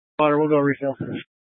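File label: Incident Tac 2, Scanner audio recording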